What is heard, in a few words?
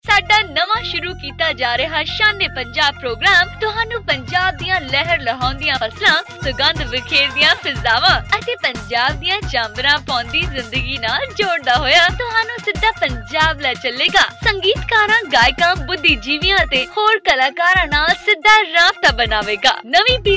Commercial